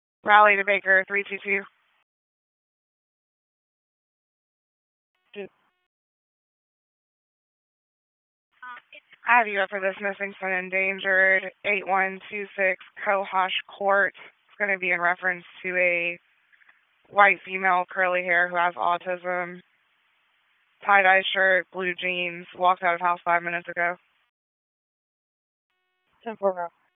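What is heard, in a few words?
Police Northeast District Dispatch; Raleigh; wake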